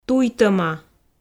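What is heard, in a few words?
"туйтӧма", Komi, female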